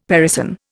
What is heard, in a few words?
"parison", Indian; Dictionary